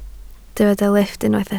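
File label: "lifft" Welsh, spoken word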